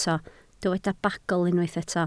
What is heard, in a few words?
"bagl", Welsh